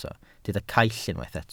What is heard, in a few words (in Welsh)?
"caill", Welsh